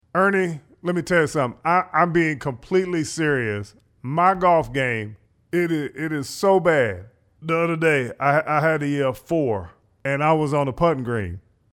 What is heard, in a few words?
impression; Charles Barkley